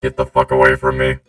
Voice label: auto tune voice; vrchat